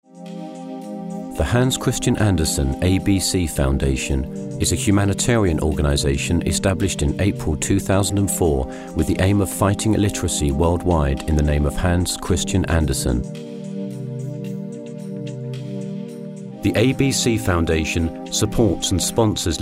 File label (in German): dokumentation